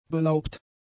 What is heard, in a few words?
"belaubt", German; adjectives